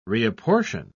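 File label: "reapportion", British English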